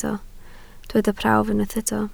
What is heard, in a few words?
"prawf", Welsh